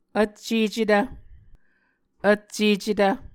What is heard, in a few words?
"vccecetv", Muscogee; Mvskoke Words with Repeated Consonants; A Dictionary of Creek/Muskogee; SIL International